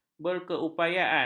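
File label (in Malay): "berkeupayaan", Malay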